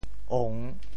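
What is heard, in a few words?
"ong3", Teochew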